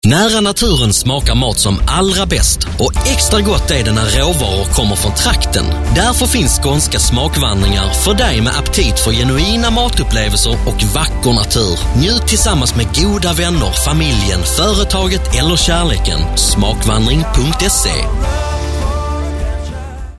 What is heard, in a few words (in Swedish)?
Reklam; Smakvandring